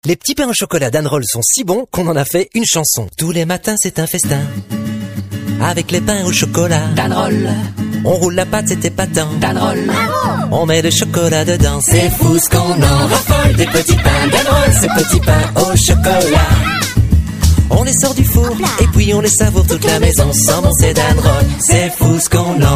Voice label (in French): french be m commercial